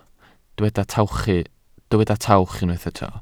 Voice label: "tawch", Welsh

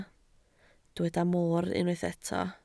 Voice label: "moor", Welsh

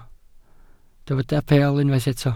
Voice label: "peel", Welsh

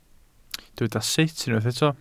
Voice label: "sut", Welsh

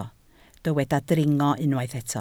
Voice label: "dringo", Welsh

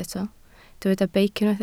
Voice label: "beic", Welsh

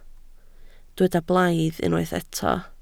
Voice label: "blaidd", Welsh

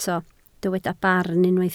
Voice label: "barn", Welsh

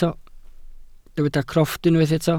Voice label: "crofft", welsh

